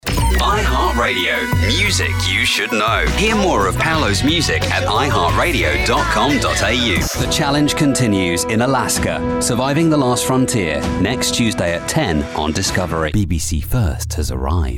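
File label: British promos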